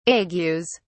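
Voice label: "agues", British English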